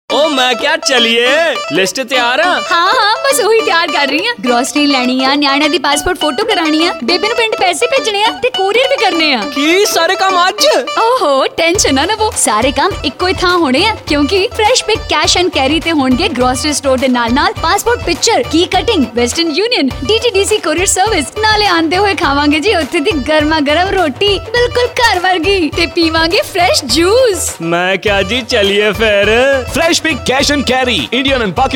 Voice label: Commercial